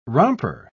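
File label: "romper", English, British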